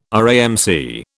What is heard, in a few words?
"RAMC", British